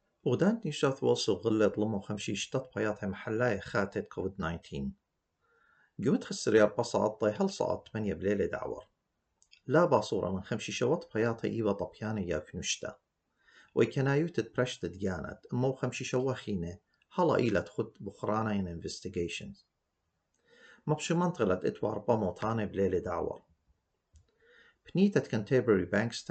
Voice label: assyrian; covid-19 coronavirus; covid-19 daily key points